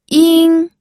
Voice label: "ying1", pinyin

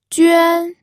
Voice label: "juan1", pinyin

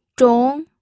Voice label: "zhong2", pinyin

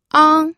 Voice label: "ang1", pinyin

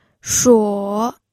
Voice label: "shuo3", pinyin

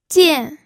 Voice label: "jian4", pinyin audio

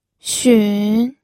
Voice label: "xun3", pinyin

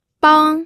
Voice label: "bang1", pinyin

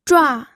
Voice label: "zhua4", pinyin

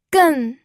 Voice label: "gen4", pinyin audio

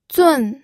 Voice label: "zun4", pinyin

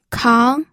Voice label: "kang2", pinyin

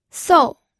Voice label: "sou4", pinyin

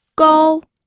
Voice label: "gou1", pinyin audio

